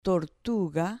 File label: "tortuga", Spanish